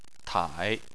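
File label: "tai", Chinese, third tone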